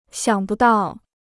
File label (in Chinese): "想不到", Chinese